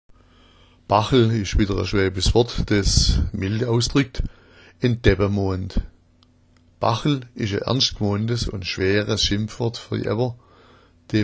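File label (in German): "bachel", schwäbisch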